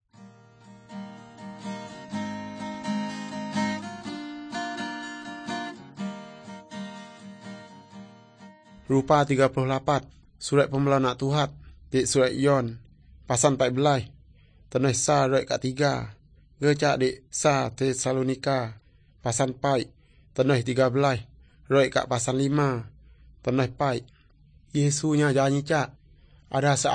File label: good news, urak lawoi